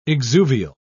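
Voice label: "exuvial", British English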